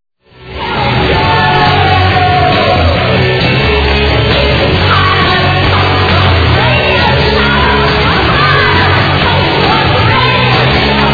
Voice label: punk radio